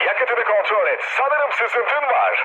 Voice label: tank damaged, mood low, speech tur; War Thunder